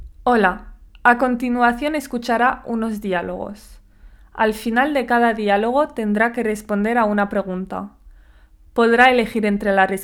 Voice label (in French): consigne espagnol; Positionnement-FLE-Bruxelles